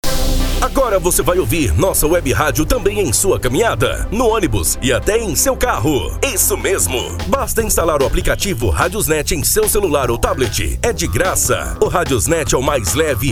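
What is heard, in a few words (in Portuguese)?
Agora voce vai ouvir nossa webradio, masculino; RadiosNet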